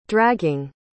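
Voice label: "dragging", English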